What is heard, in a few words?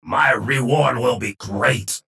vo chatter positive; strogg infiltrator; frag